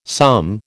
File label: "some", American